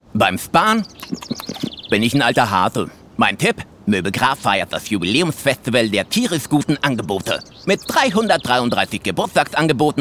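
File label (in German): Funkspot Möbel; moebel graf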